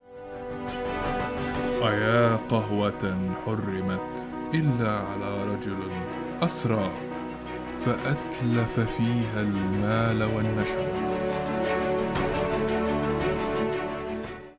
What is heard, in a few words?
Arabic Radio TV Commercial Voice